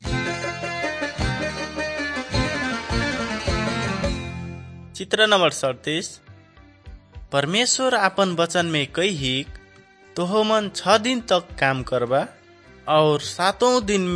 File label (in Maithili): good news maithili, nt drama